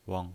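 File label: "weng", pinyin voice, neutral tone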